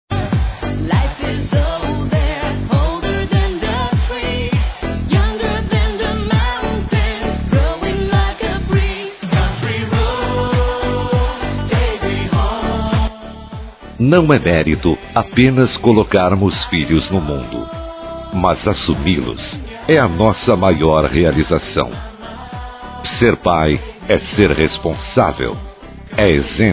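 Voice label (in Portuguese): homenagem geral masculino, telemensagens dia dos pais; Telemensagens 2017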